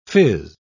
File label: "fizz", pronounce